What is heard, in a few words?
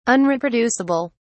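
"unreproducible", English, British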